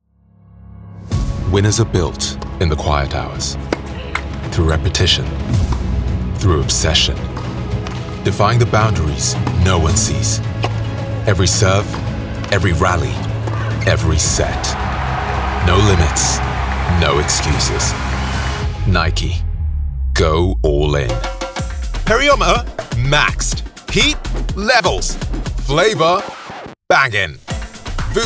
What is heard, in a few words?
Commercial, English Neutral, Nigerian, Deep, Gravitas, Emotive, Current, Smooth